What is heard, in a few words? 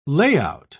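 "layout", British English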